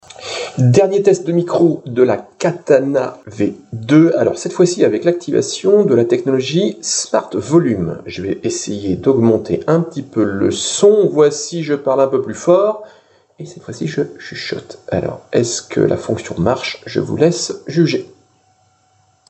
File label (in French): Test Micro